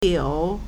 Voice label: "liu3", Chinese